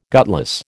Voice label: "gutless", American